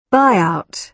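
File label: "buyout", British English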